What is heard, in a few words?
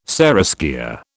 "seraskier", British